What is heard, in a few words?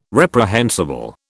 "reprehensible", British